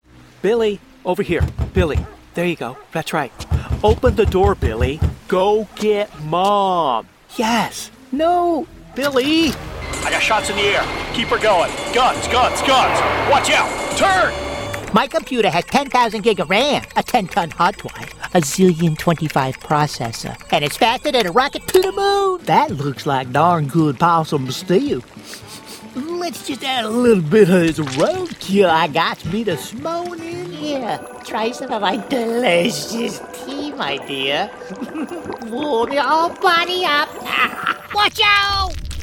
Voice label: Animation

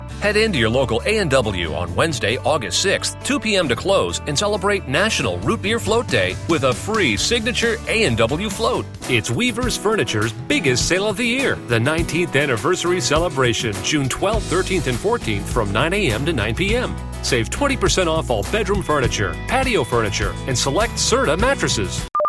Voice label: commercial VO quickie bright

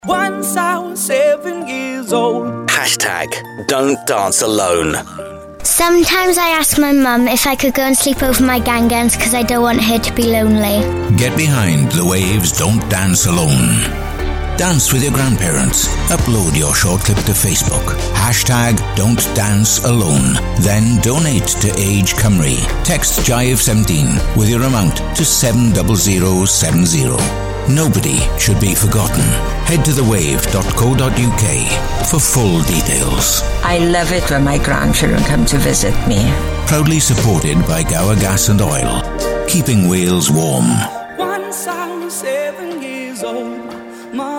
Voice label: Gas and Oil Promo; Gower; Wave 50; Don t Dance Alone

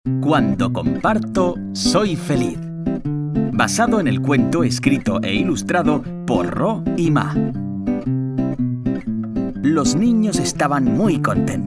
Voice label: Audiobook Children; Buenas Actitudes

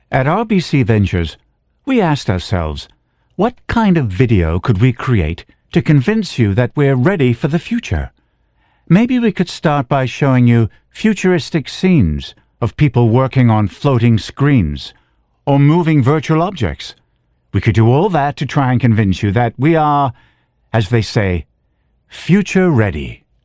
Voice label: British English

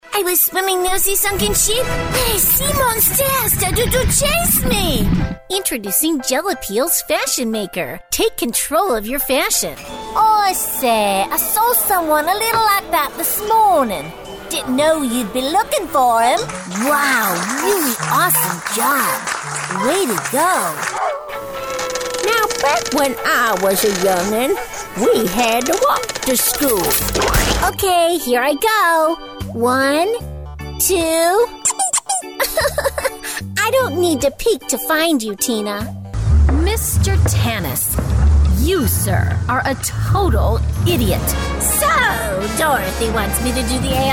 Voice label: characters sizzle

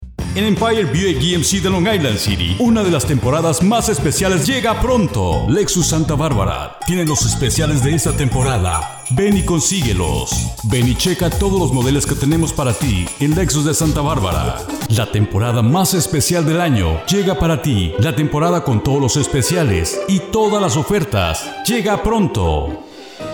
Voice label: Spanish

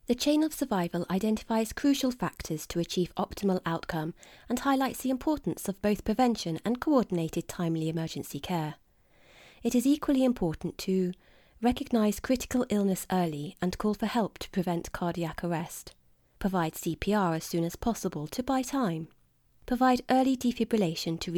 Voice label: medical Narration

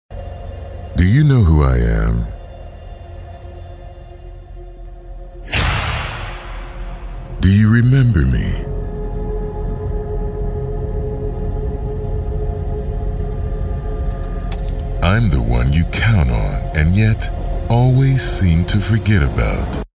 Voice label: Corporate Mellow; Rennline

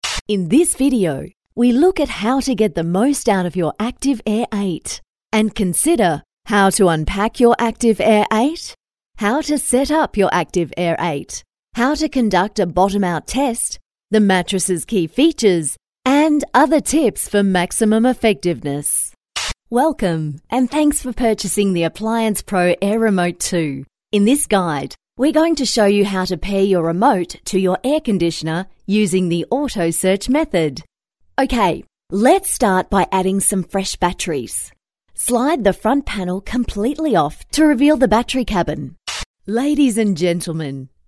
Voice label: E LEARNING

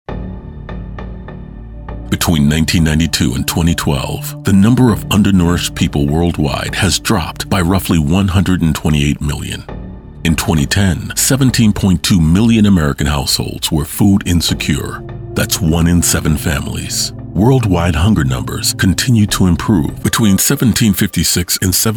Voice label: DOCUMENTARY NARRATION

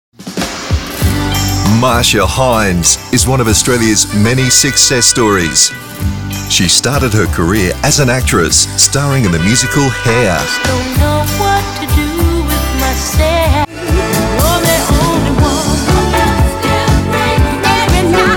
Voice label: WEBSITE NARRATION

